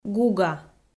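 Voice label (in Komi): "гуга", Komi, female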